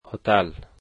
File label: hotel, Dari